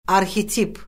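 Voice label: "arxetip", Azerbaijani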